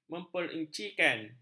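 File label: "memperincikan", Malay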